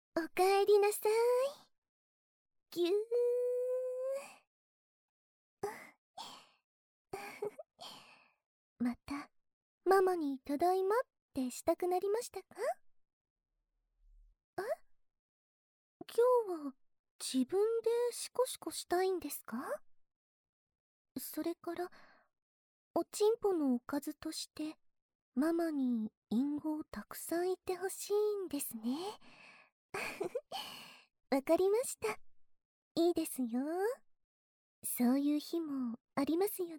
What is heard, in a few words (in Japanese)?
淫語連呼, ASMR; ＳＥ無し; ママのオナサポ淫語連呼♪